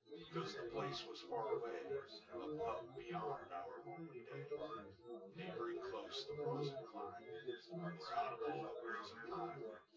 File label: test male synthconv